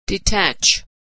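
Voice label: "detach", American English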